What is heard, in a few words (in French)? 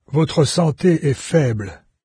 health, fr, narrator; Fate Undiscovered Realms